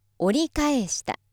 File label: female